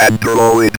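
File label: ROBVOX, robot voice; E-Lab; X Static Goldmine 1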